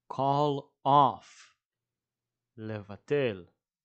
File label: call off לבטל